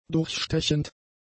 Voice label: "durchstechend", german; adjectives

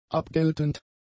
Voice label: "abgeltend", German; adjectives

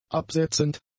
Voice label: "absetzend", German; adjectives

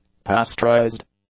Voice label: "pasteurised", English; adjectives

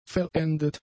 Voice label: "verendet", German; adjectives

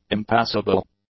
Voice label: "impassable", English; adjectives

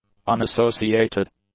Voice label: "unassociated", English; adjectives

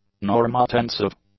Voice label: "normotensive", english; adjectives

